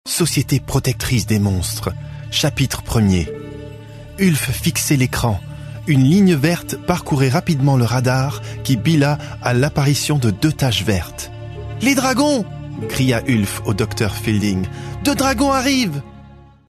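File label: French, male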